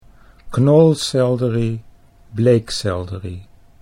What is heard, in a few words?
"knolselderie bleekselderie", Dutch